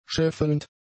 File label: "scheffelnd", german; adjectives